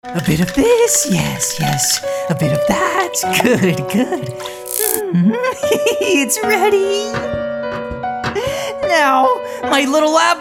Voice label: animation